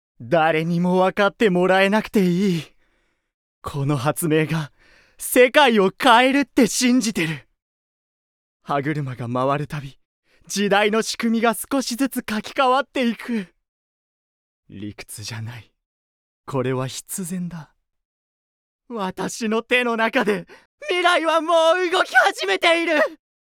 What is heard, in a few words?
Dialog